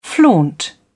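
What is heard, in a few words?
"flaunt", British English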